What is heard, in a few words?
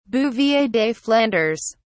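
"bouvier des flandres", British English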